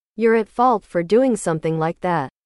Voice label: english

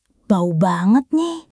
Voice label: indonesia, negative phrases

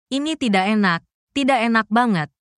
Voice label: indonesia, negative phrases

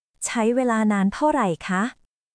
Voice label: Thai, basic thai phrases

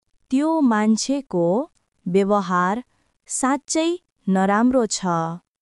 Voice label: Nepali; ネパール語の「悪口・不満」フレーズ; negative phrases in nepali; insults complaints

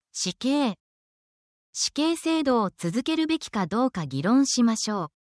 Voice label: justice law in japanese

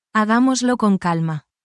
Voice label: spanish, spanish phrases

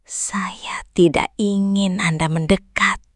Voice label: indonesian phrases

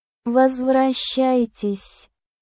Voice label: russian phrases

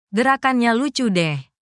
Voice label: indonesia, indonesian negative phrases

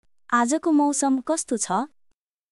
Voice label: Nepali, basic phrases

